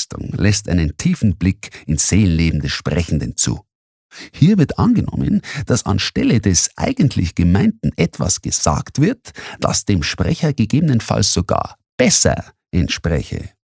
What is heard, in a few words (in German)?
Versprecher Neutral Bayerisch